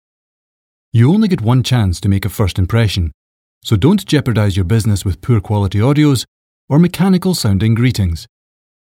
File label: male voice